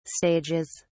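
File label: "stages", British English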